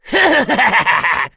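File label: Vox Happy, English; Bernheim; kingsraid; voices heroes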